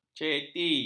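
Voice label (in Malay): "ceti", Malay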